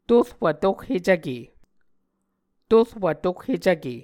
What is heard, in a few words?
"torwv tohhecvke", Muscogee; Mvskoke Words with Repeated Consonants; Martin, Jack B., and Margaret McKane Mauldin. 2023. A Dictionary of Creek/Muskogee